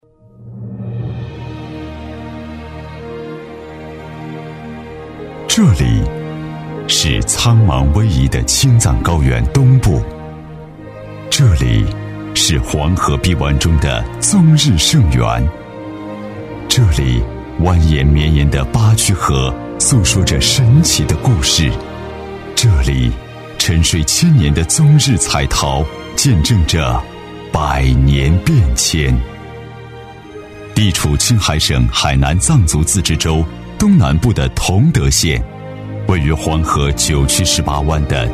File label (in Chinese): 男 宣传片 大气沧桑; 同德县